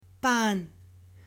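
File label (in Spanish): "pan" Spanish, male; ALIMENTOS; AppLenguaje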